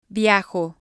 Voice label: "viajo", Spanish

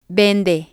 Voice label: "vende", Spanish

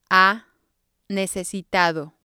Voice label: "ha necesitado", spanish

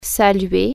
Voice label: greet, French

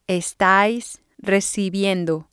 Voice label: "estais recibiendo", spanish

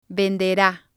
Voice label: "vendera", Spanish

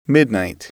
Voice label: "midnight", English

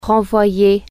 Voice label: "return an object", French

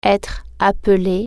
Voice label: "be called", French

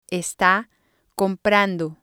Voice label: "esta comprando", spanish

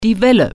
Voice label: "wave", German

